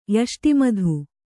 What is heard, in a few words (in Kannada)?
"ಯಷ್ಟಿಮಧು", Kannada; yaṣṭi madhu; noun